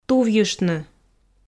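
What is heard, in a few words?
"тувйыштны", Komi, female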